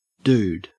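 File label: "dude", Australian English; pronunciation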